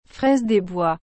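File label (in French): "fraises des bois", French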